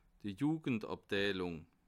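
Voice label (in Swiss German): "jugendabteilung", Bas Rhin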